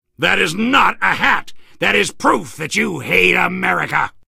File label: soldier hat taunts